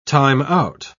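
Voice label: "time-out", British English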